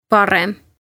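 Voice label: "Parem" Estonian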